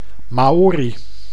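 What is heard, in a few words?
"Maori", Dutch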